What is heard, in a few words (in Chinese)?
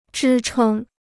"支撑", Chinese